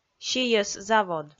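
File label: "сийӧс-завод", Komi, female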